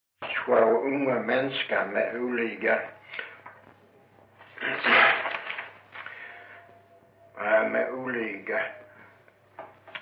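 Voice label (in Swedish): Mörrum Blekinge, dialekter; Folkminnen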